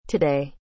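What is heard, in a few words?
"today", English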